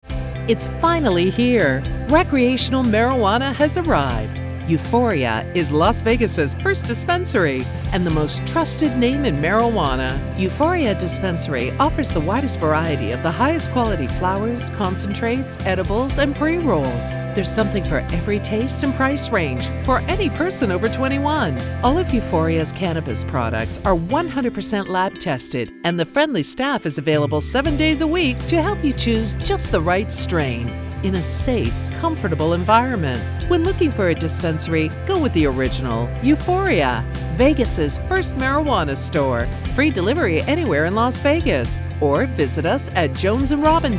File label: Radio Spot; Euphoria